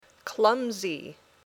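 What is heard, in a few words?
"clumsy", English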